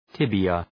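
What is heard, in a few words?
"tibia", English; {‘tıbıə}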